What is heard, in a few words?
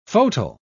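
"fauteuil", British English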